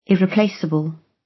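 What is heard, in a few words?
"irreplaceable", British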